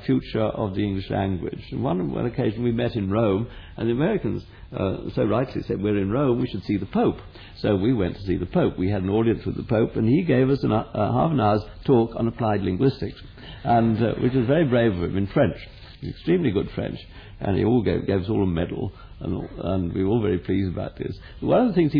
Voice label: Professor voice, creaky and breathy, general british